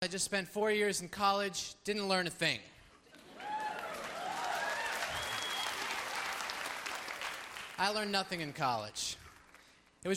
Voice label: comedy